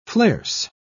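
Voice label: "flares", British English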